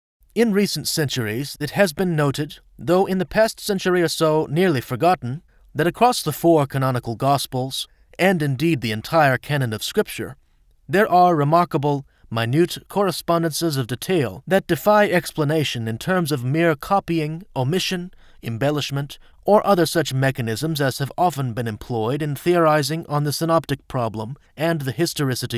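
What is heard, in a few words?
US English Male sample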